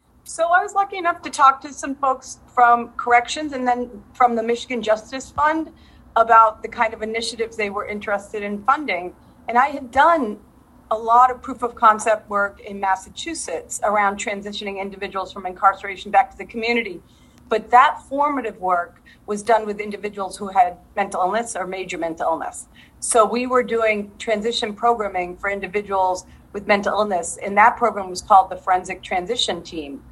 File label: Interview